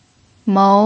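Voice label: "mou", pinyin, second tone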